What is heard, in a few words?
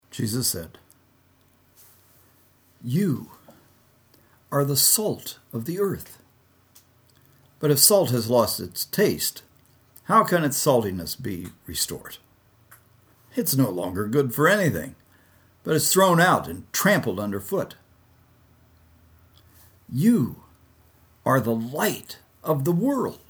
storytelling